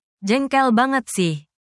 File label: indonesia, negative phrases